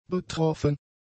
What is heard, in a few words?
"betroffen", German; adjectives